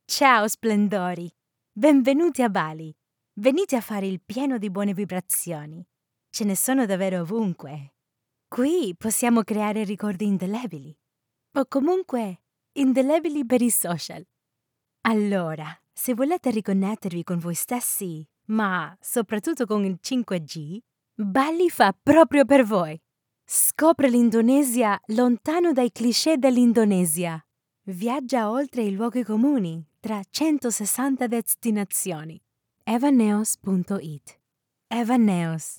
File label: italian; Eveneos